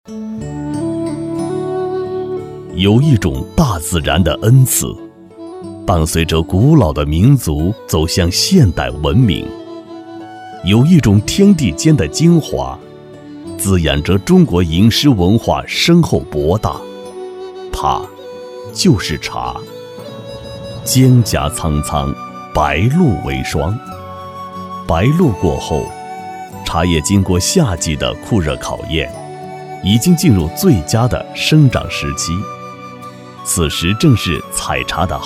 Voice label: 茶的介绍 专题类

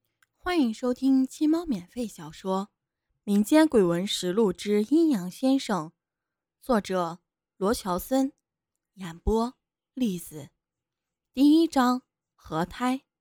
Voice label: 小说

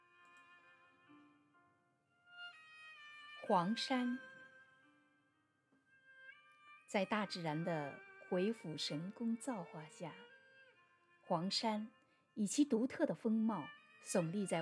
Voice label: 导游解说词; 黄山导游解说词

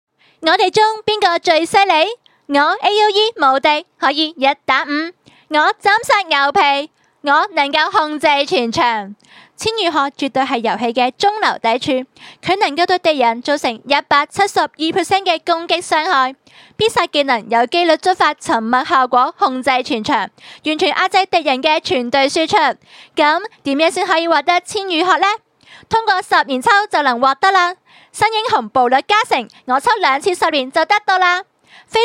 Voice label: 粤语新媒体配音

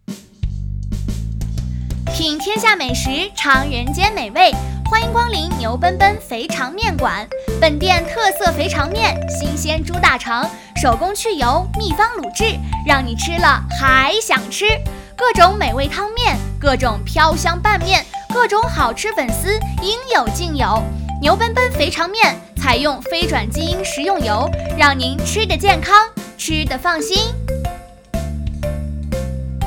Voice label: 肥肠面 广告

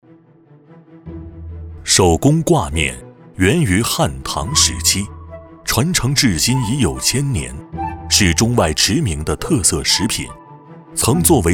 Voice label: 舌尖风格; 手工挂面

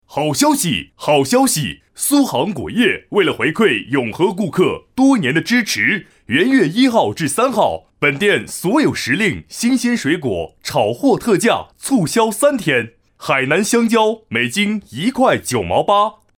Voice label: 广告水果促销样音电购

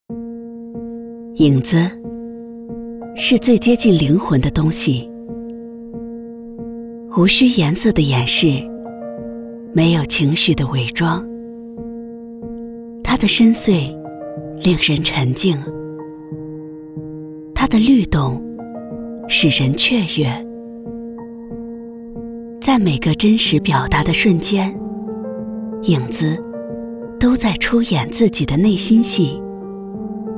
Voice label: 广告走心独白; 三星盖乐世

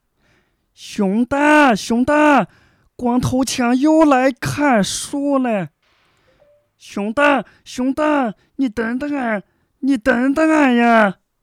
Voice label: 恶搞搞笑; 熊二配音

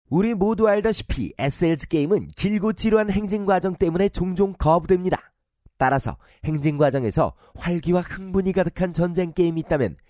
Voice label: 韩籍韩语男

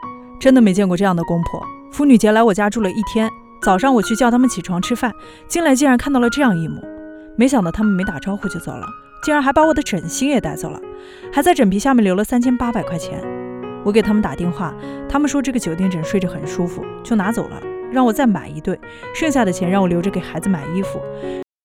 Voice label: 信息流纯素人带货主播自然无播音腔; 纯素人抖音带货主播无播音腔自然

